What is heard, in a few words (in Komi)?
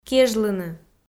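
"кежлыны", Komi, female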